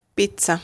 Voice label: "Pizza", Italian